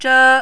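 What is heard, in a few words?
"zhe1", mandarin, high level tone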